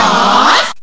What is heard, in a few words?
speech freek out; Terra Sound Library